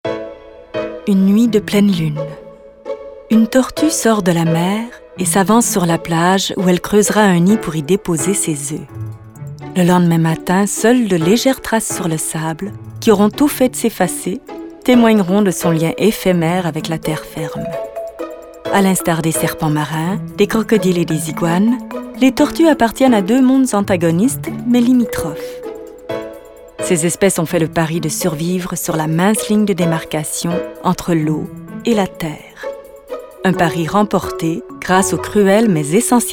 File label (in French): Narration Francais